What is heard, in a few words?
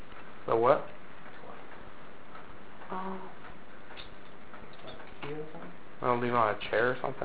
female "oh"